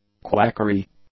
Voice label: "quackery", English